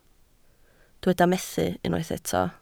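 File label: "methu" Welsh, spoken word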